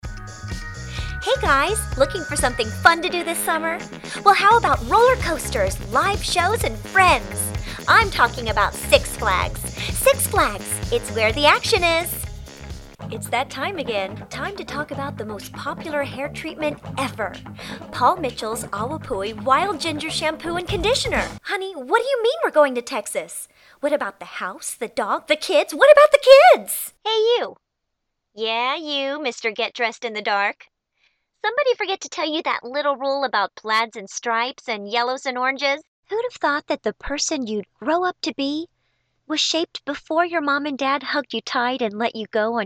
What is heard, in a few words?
commercial